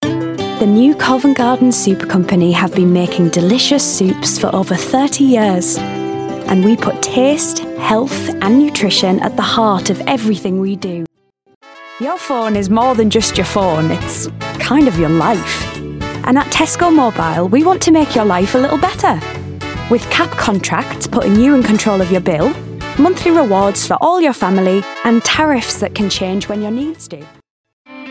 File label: Commercials